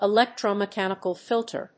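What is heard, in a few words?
"electro-mechanical filter", English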